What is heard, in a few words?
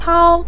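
"tao" neutral tone, Mandarin Chinese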